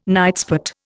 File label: "nightspot", Indian